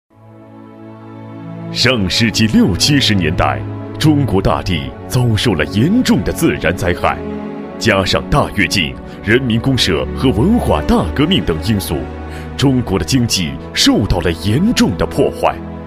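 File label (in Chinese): 男厚重大气-历史专题-深沉忧郁录音, 国语男声配音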